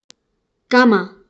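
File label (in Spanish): "cama", Spanish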